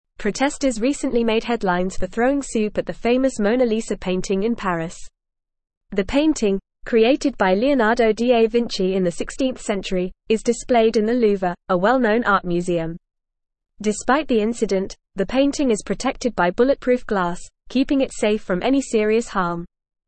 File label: English Upper Intermediate FAST Reading; English Newsroom; Protesters throw soup at glass protected Mona Lisa